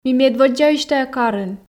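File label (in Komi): ми медводдзаысь тайӧ карын, Komi, female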